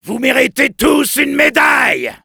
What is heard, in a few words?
cheers, fr